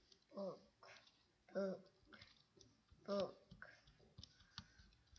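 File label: "book", spoken word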